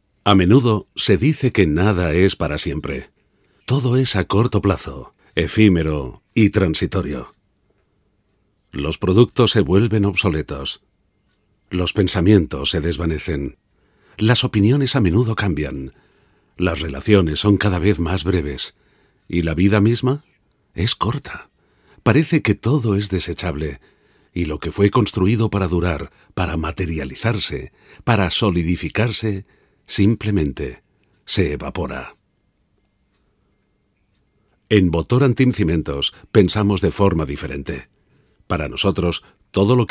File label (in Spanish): corporativo